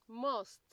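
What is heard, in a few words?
"must", Nigerian Pidgin, female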